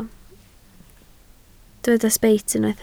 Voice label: "sbeit", Welsh